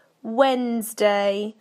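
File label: "Wednesday", British English; PictureDictionary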